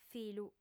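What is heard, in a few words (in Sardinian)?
"filu", female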